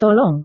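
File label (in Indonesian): "tolong", Indonesian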